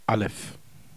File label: aleph, heb